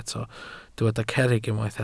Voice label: "cerrig", Welsh